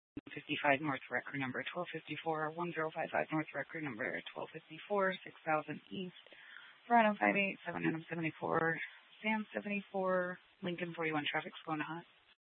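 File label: Police Department Dispatch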